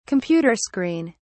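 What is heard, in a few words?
"computer screen", English, British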